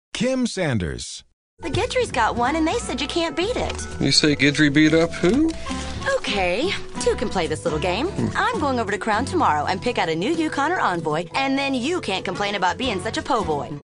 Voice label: ATTITUDE

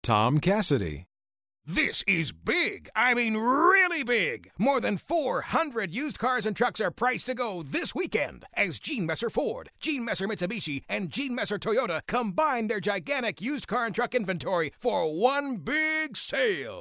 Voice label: ENERGIZED, Excited

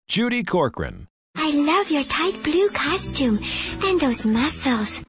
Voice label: DITZY

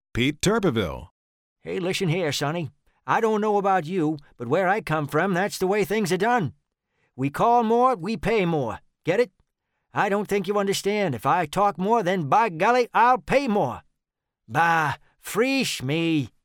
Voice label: NEW ENGLAND

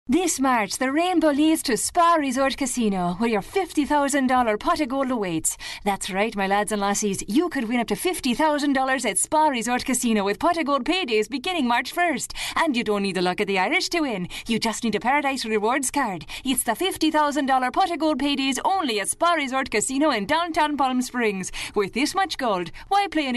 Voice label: Irish Accent

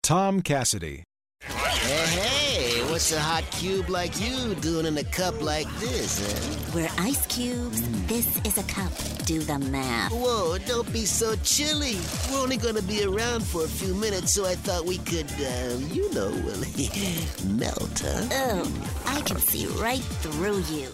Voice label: SLEAZY

